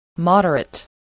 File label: "moderate", voice, spelling